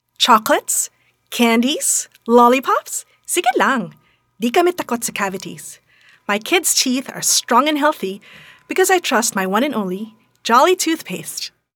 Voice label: Tagalish (Confident, Enthusiastic)